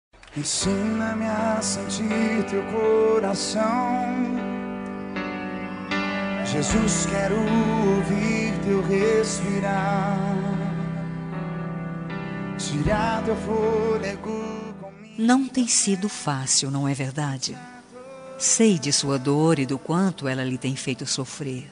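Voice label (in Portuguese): pesames fem, Telemensagens; Telemensagem Pêsames – Voz Feminina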